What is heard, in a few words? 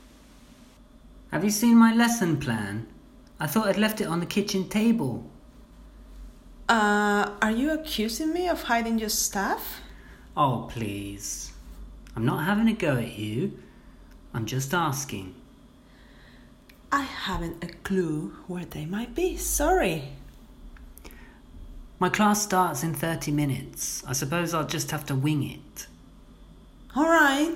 Conversation